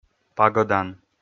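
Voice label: "пагӧдан", Komi, male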